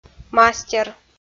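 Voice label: "мастер", Komi, female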